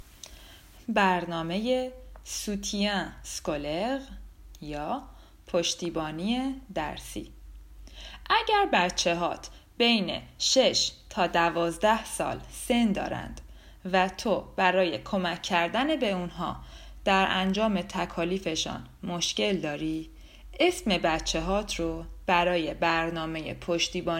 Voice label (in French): farsi